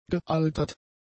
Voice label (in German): "gealtert", German; adjectives